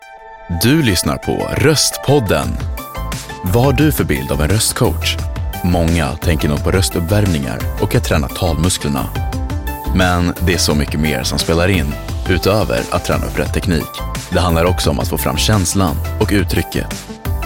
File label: Podcast Voice Swedish, male; Podcasting